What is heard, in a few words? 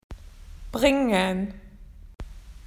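"to bring", Australian English; PictureDictionary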